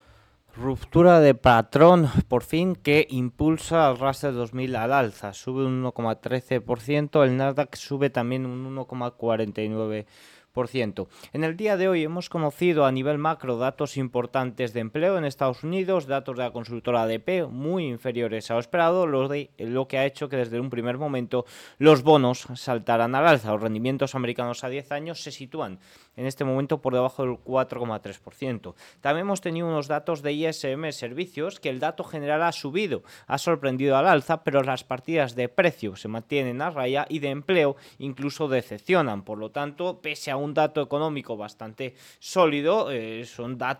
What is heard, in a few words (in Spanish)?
radio; noticias bolsa